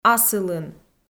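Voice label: "асылын", Komi-Zyrian, female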